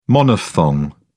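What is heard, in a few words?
"monophthong", British English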